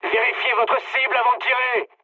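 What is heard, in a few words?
French player friendly fire mood med; War Thunder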